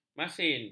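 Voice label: "masin", Malay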